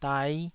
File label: "dai5", Taiwanese syllable